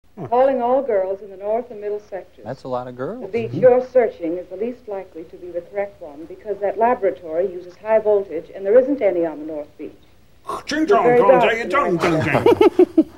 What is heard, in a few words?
chinese